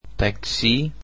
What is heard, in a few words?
"taxi", greek